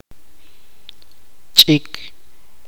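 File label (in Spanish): "pájaro", chuj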